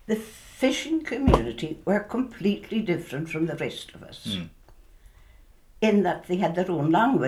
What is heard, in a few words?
Northern and Insular Scots, The Black Isle